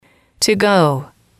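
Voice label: "to go", English, female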